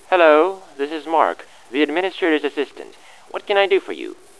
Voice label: hello, phone; xash3d fwgs; induction